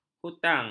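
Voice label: "hutang", Malay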